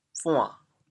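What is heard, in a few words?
"buan3", Teochew, chaoyang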